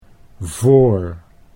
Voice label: "voor", Dutch